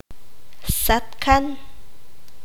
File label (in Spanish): "cielo", Q'anjob'al